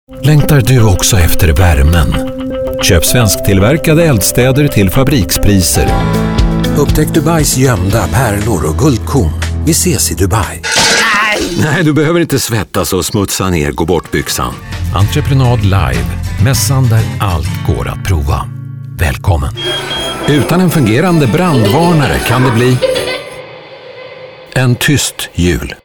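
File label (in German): Swedish werbung, voicesamples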